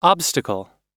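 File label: "obstacle", American English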